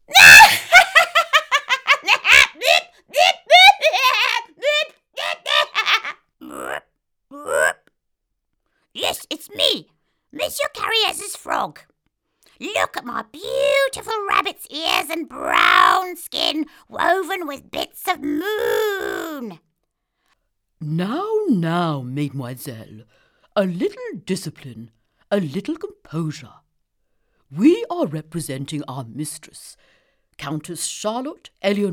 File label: Cartoon Children